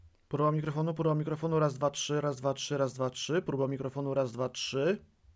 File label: maono